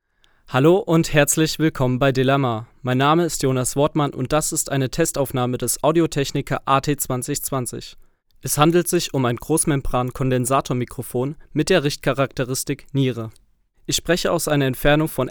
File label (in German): sprache m; audio-technica at2020 test